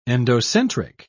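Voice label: "endocentric", British English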